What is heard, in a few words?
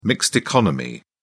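"mixed economy", British English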